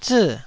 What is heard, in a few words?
"zii4", Taiwanese syllable